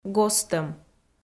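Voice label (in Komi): "гостӧм", Komi, female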